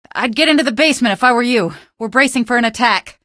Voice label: citizen greet, female; hl2; coast; odessa; Source engine

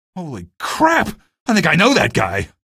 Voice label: alert zombie, male; video games; npc; source engine; episodic; episode 1

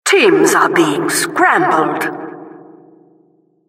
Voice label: announcer team scramble

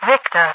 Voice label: "victor", overwatch radio voice; Source Engine; video game; npc

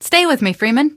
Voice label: follow, female; hl2; coast; odessa; Source engine

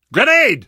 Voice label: grenade, survivor; voice

